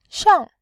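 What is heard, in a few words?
"xiang", Mandarin Chinese, tone 4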